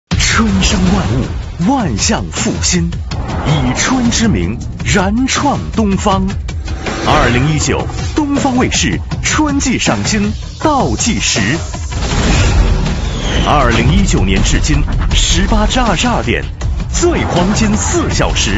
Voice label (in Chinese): 片花 配音; 东方卫视春季赏新